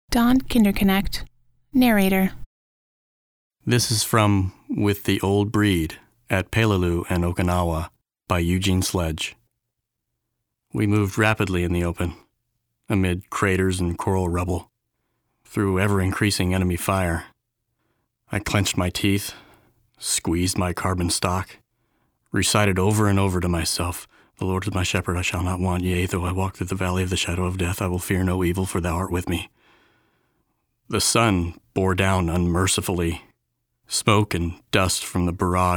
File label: Audiobook; voice demo production samples